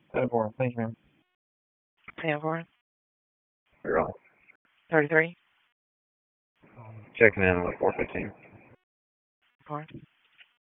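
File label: Raleigh Police Southeast District Dispatch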